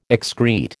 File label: "excrete", American